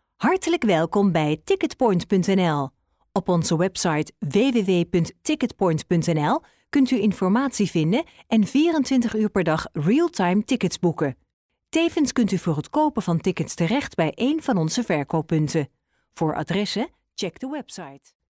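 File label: Dutch, female, narration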